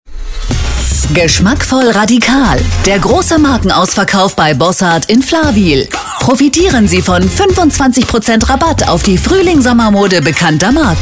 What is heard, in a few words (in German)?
Werbung cool